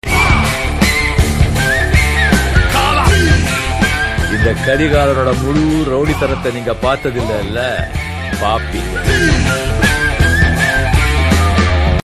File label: whistle and dialouge tone; Bollywood; Kaala; Rajini